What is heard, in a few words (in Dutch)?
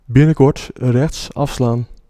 Ah Exit Right, Dutch, male